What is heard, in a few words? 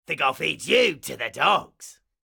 Pirate Elite Male Alert Line; NPC; dialogue; Heist Thug Spotted